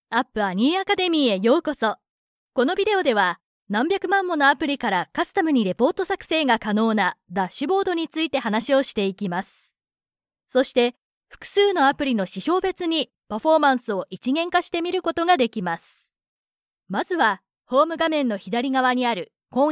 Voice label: japanese e-learning